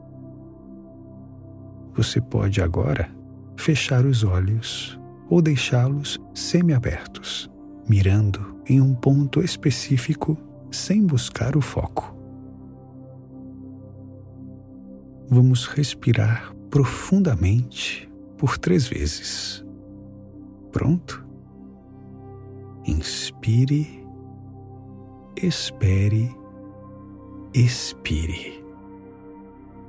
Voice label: Portuguese Brazilian audio guides; voice actors

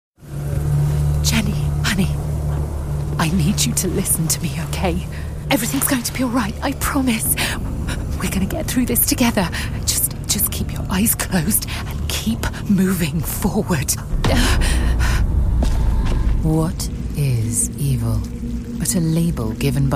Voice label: english british video games

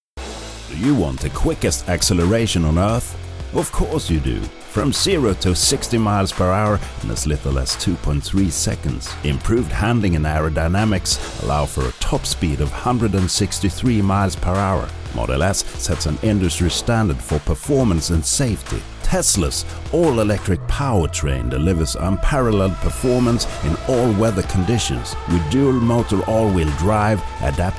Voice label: english automotive

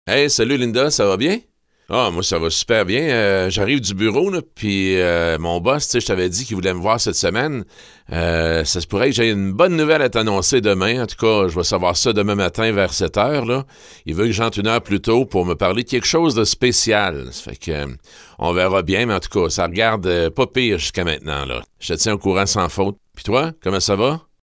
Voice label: french canadian others

